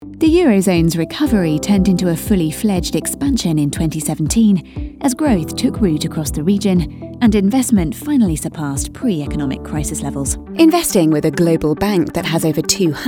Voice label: corporate